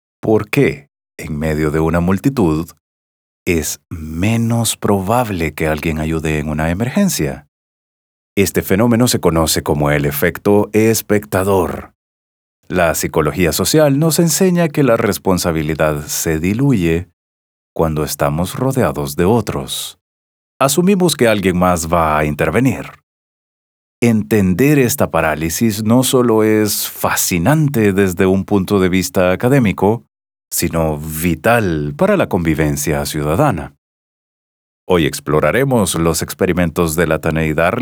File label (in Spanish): E-learning; El efecto espectador